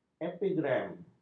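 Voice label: "epigram", Malay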